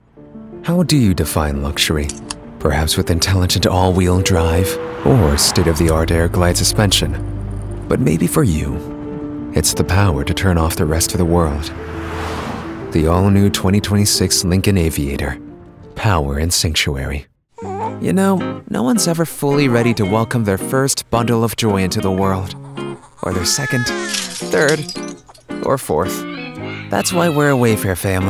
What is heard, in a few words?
Commercial